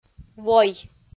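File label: "вой", Komi, female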